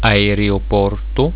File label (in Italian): "aereoporto", italiaans; reizen